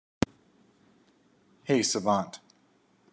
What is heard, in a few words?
hey savant, wake word, en; Precise-Community-Data